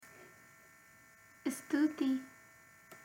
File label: sinhala thank you